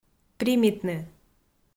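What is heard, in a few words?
"примитны", Komi, female; kpv audio dict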